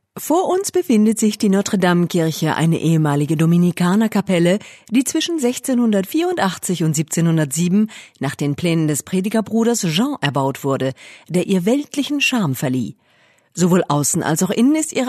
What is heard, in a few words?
German F Tour guide